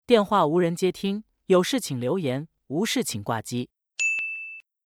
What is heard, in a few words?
voicemail greeting